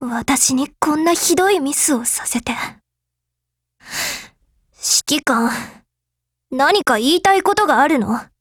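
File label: fix, Japanese; xm3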